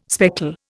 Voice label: "speckle", Indian

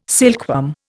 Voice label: "silkworm", Indian

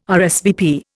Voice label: "RSVP", Indian

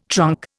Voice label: "trunk", Indian

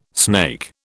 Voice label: "snake", British

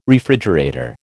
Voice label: "refrigerator", American

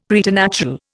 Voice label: "preternatural", Indian; Dictionary

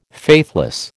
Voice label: "faithless", American

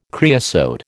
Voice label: "creosote", American

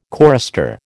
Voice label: "chorister", American

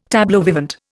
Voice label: "tableau vivant", Indian

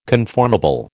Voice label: "conformable", American

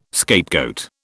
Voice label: "scapegoat", British

